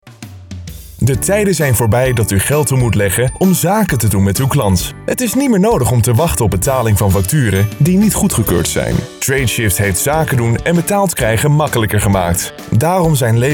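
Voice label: Dutch, male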